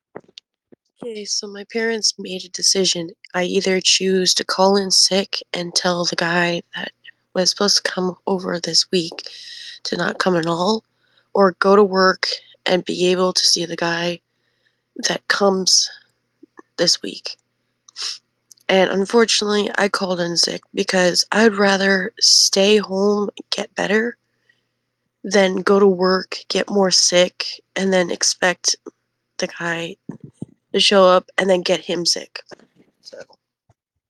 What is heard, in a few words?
voice message